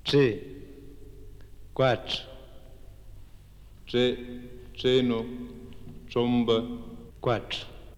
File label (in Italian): Alveodentali Catania